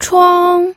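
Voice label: "chuang", Mandarin Chinese, first tone; pinyin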